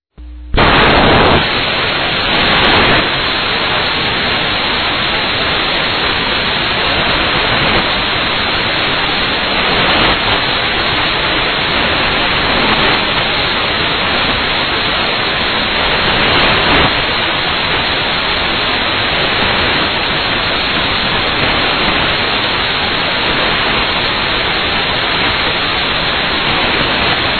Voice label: IRMAO, Telemensagens, Aniversarios, fem; Telemensagens 2017

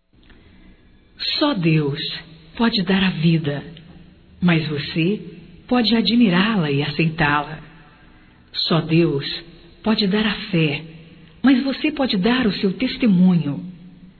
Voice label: Otimismo Evangélico Feminino; Telemensagens 2017

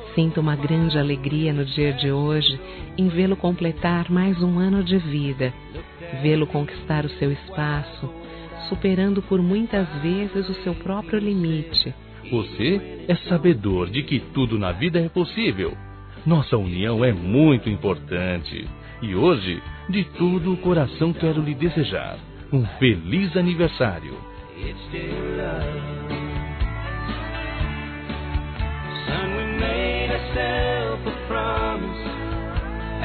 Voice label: FILHO, Telemensagens, Aniversários, Fem; Aniv Familiar

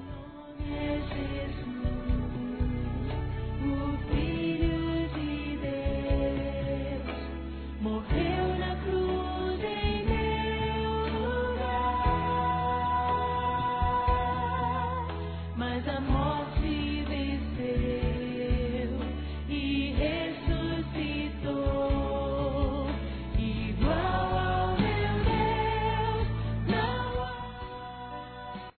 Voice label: NATAL E ANO NOVO PARA SOGRO, masc; Telemensagens; Misto

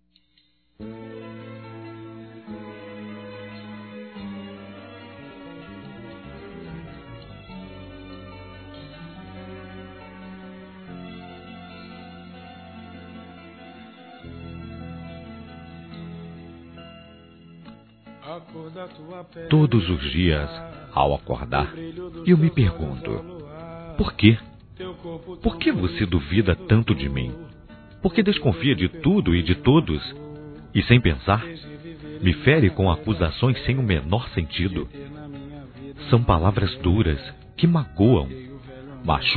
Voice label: CIÚME EXAGERADO, masc; Telemensagens 2017; Ciúmes; PESSOA Q SOFRE

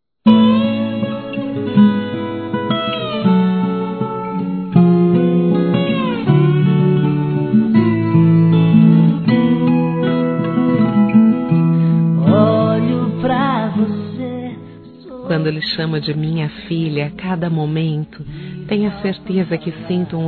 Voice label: ANIV. FILHO ADOT. F

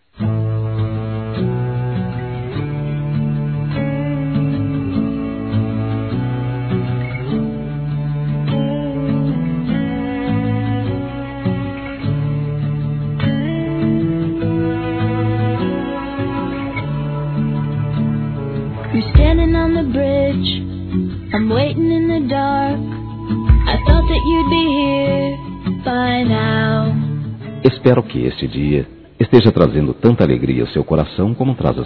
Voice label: Aniversário Romântico Suave Masculino; Aniversários

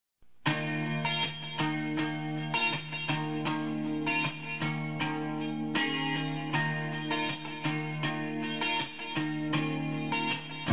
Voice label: RECONCILIAÇÃO F, Telemensagens, fem

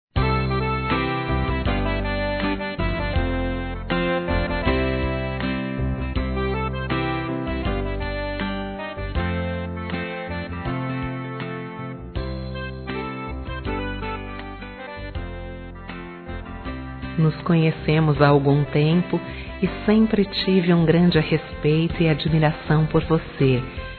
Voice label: Paquera Feminino; Telemensagens; Conquistas Cantadas paqueras; Telemensagens 2017

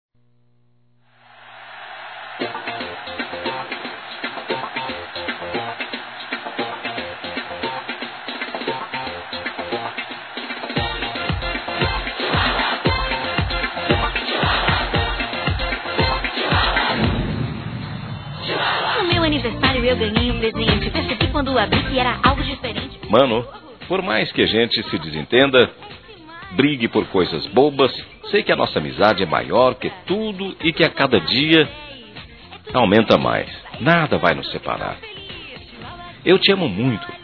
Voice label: Aniversário Irmão Masculino; Telemensagens 2017; Aniversários; Aniversário Infantil

